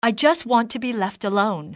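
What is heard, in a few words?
left alone, english, female; XBOX; civ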